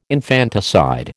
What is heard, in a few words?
"infanticide", American